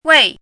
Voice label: "wèi", hanzi

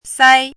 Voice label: "sāi", hanzi

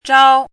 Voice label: "zhāo", hanzi

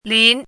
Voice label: "lín", hanzi

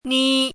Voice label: "nī", hanzi

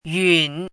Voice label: "yǔn", hanzi

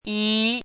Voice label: "yí", hanzi

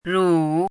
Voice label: "rǔ", hanzi

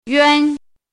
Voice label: "yuān", hanzi

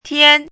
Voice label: "tiān", hanzi

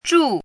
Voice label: "zhù", hanzi

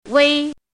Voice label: "wēi", hanzi

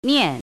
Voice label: "niàn", hanzi

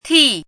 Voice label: "tì", hanzi

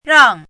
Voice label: "ràng", hanzi

